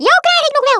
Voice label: I'LL GET YOU, Dutch; Worms Armageddon